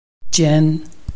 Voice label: "jian1", Chinese